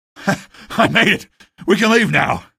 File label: reach train, male; video games; npc; source engine; episodic; episode 1